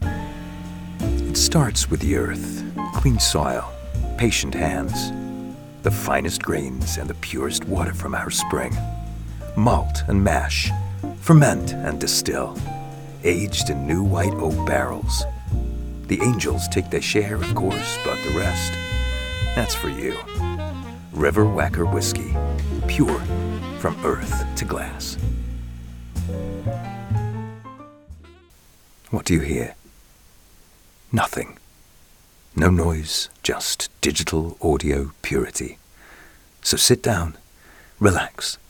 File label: commercial voice; commercial voice reel